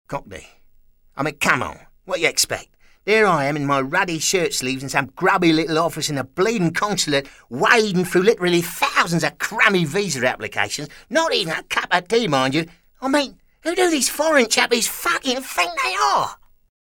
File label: Cockney